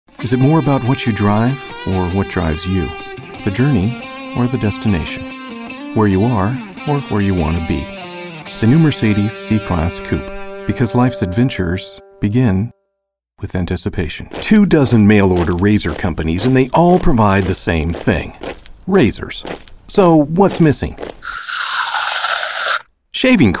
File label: COMMERCIAL